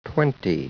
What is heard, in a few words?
SPEECH ENGLISH